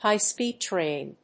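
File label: "High Speed Train", English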